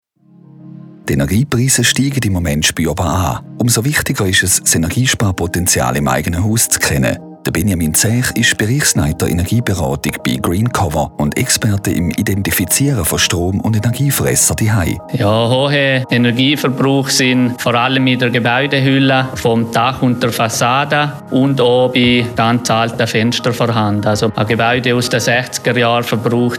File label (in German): Infomercial; Green Cover; radio fm1